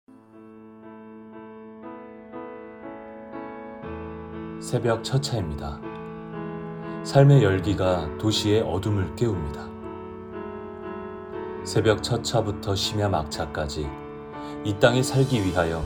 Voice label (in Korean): 광고; 기아자동차